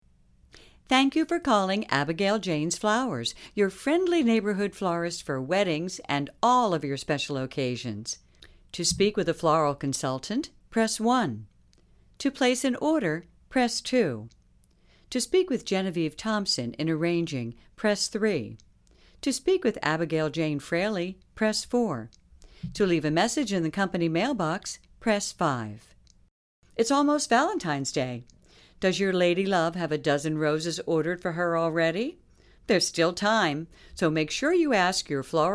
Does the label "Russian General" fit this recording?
No